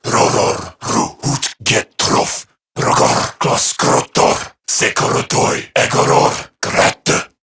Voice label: Patrol Corrupted Grineer Male

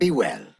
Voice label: Ost Male en; Cetus

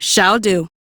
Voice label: Accept, Female; en; Warframe; Railjack Crew; Cephalon Suda

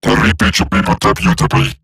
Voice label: Cover Me, Robot Large; Warframe; Eximus Corpus Robotic Large; Battle Barks

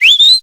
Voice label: Horse Start Adult, Dialog; Duviri

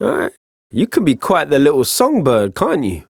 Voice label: Ambient Barks, en; Warframe; Mall Romance; Quincy

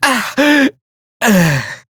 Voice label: Death, Male; Dialog; Warframe; Railjack Crew; Perrin Sequence

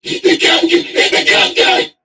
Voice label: Cover Me, Crewmen, en; Warframe; Corpus Crewmen; Crewman E; Dialog Barks Battle

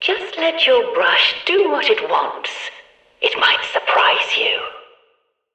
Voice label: Background Announcements

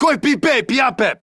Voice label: Player Spotted Corpus Male; en; Battle Barks

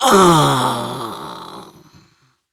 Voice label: Death en; Warframe; Grineer Catbrow Handler; Battle Barks

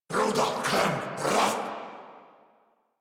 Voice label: Attack Corrupted Grineer Male; Warframe; Battle Barks

